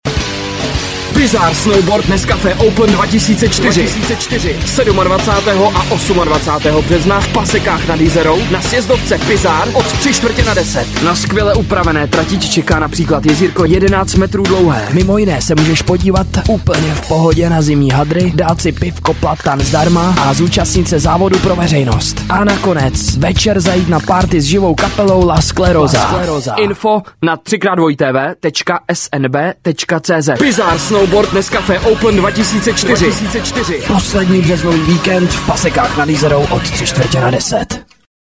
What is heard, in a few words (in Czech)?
reklama